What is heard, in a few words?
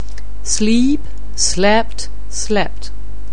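"sleep", English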